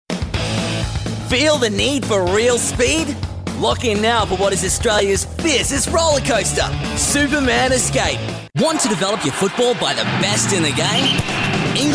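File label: English Australian, commercial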